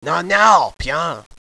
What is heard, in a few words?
viet which is the way out